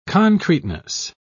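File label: "concreteness", British English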